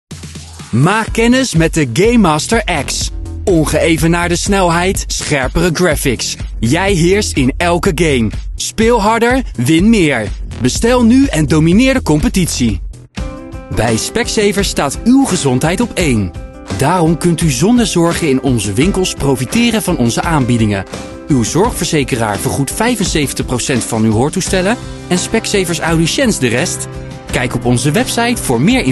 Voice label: commercials